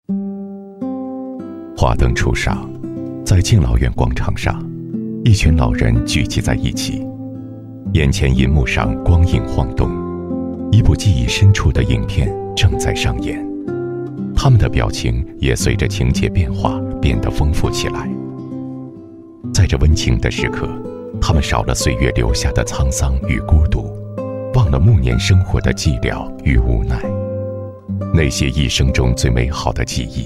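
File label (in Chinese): 男国大音-旁白-舒缓-叙述; 光影的奉献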